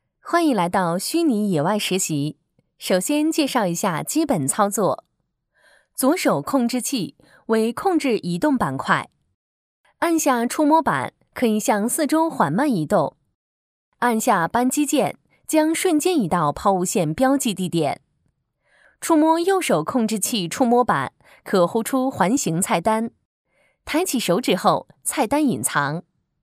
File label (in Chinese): 产品演示 虚拟现实引导, 女